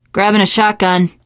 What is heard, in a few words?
take shotgun, teengirl; survivor voice